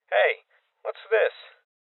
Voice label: Voices Loot Spotted Male Young; rebel cops 2019

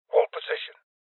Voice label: Voices Guardian Male Old; rebel cops 2019

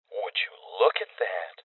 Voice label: Voices Loot Collect Male

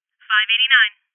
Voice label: Voices Trap Bomb Spotted Female

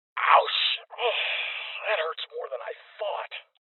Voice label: Voices Armor Shot Male

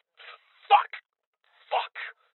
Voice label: Voices Heavy Losses Male

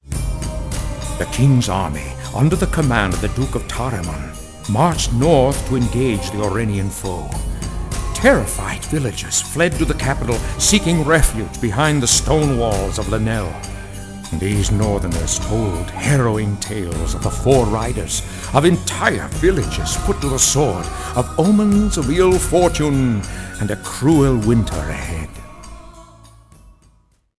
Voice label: intro narration; act2; Summoner; cutscenes

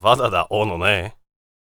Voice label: warrior conversation emotion neutral

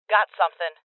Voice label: Voices Loot Collect Female; rebel cops 2019

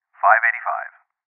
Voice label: Voices Cop Evacuated Male

